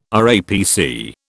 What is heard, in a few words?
"RAPC", British